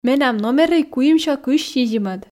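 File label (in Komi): менам номерӧй куимсё кызь сизимӧд, Komi, female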